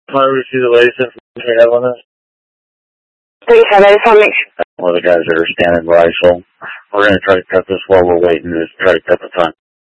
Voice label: Mutual Aid-Intersystem Interop B, scanner

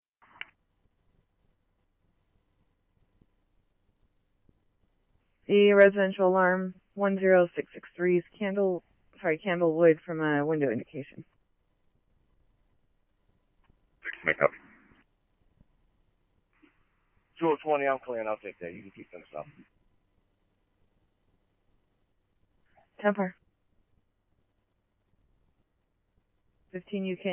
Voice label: scanner, Scottsdale Police

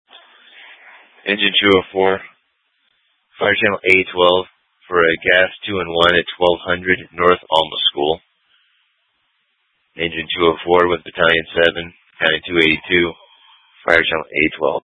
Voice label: FD Dpts VHF 156.240 Patch

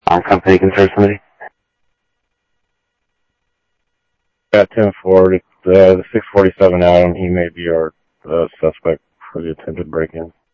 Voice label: South Scottsdale Sheriff, scanner audio

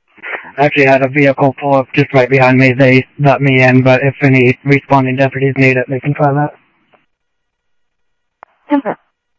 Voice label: scanner audio trunk

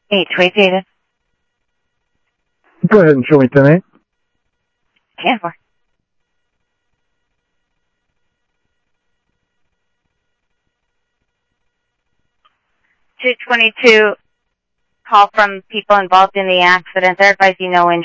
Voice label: Central Sheriff Central, scanner audio trunk

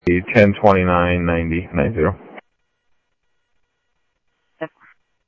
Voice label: Interop Mutual Aid, scanner audio

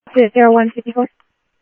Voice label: Tempe Police, scanner; SimulcastF-Tempe; TEMPE PD A02

